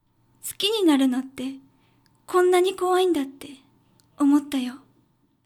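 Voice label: female; 好きになるのって、こんなに怖いんだって思ったよ。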